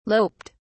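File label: "loped", British English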